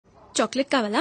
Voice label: Voice Telugu Notification; Chocolate Kavala